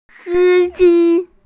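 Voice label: "si1ji1", Mandarin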